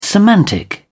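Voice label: "semantic", British English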